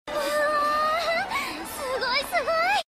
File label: anime "sugoi sugoi"